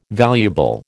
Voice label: "valuable", American

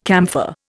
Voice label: "camphor", Indian

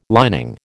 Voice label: "lining", American

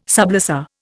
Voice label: "sublessor", Indian

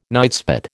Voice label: "nightspot", American

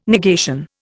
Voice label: "negation", Indian

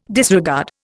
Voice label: "disregard", Indian

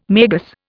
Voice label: "magus", Indian

